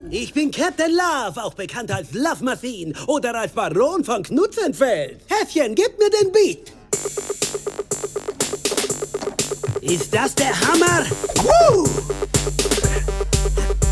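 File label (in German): Charge, Sprecher, Männer; Sherlock Gnomes; Mankini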